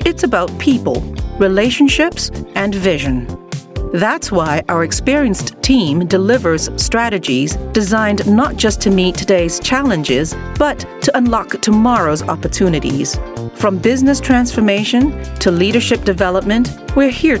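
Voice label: US English Consulting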